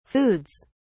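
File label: "foods", British English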